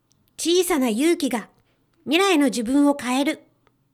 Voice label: パワフル女性; 女性 「小さな勇気が、未来の自分を変える。」; ボイス

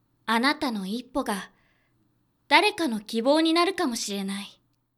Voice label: josei anatanoippogadarekanokibouninarukamoshirenai; 女性 「あなたの一歩が、誰かの希望になるかもしれない。」; ボイス

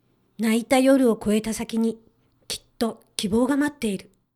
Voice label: 男性; 男性 「泣いた夜を越えた先に、きっと希望が待っている。」; ボイス

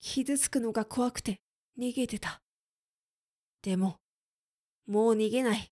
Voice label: クール男性; 男性 「傷つくのが怖くて逃げてた。でも、もう逃げない。」; ボイス

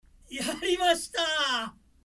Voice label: パワフル男性; 男性 「やりました！」; ボイス

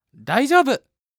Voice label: dansei daizyoubu; 男性 「大丈夫」; パワフル; ボイス

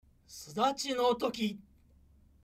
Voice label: 男性; 男性 「巣立ちの時」; ボイス

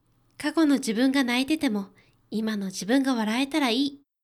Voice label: 女性; 女性 「過去の自分が泣いてても、今の自分が笑えたらいい。」; ボイス

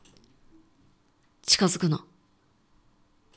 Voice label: 女性_「キャー!やだー恥ずかし〜!」, ボイス リアクション 女性

